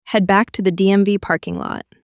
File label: Female Back To DMV